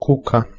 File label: "kuka", Bosnian